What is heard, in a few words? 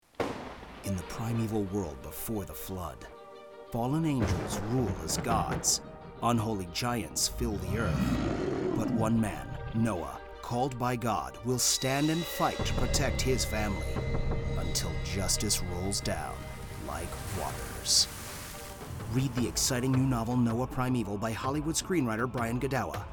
Commercial; Noah